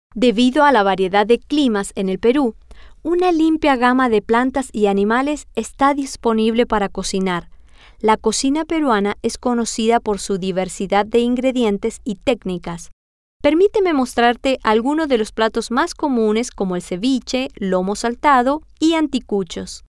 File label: Spanish; debido A La Variedad